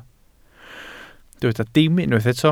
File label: "dim", Welsh